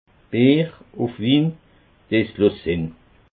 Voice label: "bier", alsace

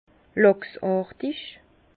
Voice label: "lachsartig", Bas Rhin; vin; saumon

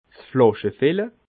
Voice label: "flaschefille", alsace

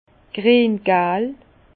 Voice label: "griengal", Bas Rhin; jaune vert; vin

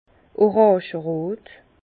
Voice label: "orangerot", Bas Rhin; vin; rose orangé

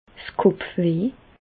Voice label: "kopfweh", Bas Rhin; medecine; mal de tête

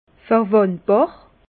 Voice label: "verwandbar", alsace

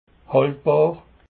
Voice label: "haltbar", alsace

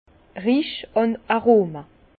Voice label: "richanaroma", Bas Rhin; vin; richesses aromatiques

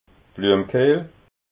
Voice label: "bluemkehl", alsace

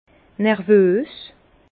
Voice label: "nervos", Bas Rhin; vin; nerveux

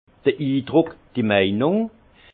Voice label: "indruck", alsace; impression; vin

